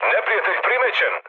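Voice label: Serbian enemy detected mood med; speech; War Thunder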